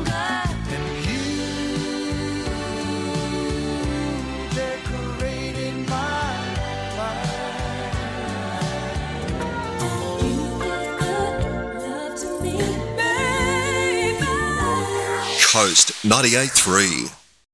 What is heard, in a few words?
Classic Hits Imaging